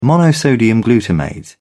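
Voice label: "monosodium glutamate", British English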